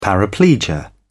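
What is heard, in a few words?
"paraplegia", British English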